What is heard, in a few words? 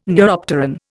"neuropteran", Indian